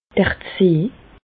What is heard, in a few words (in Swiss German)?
"d'r Zee", Bas Rhin; medecine; orteil